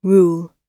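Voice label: "rule" spoken, British English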